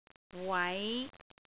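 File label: "wai5", Cantonese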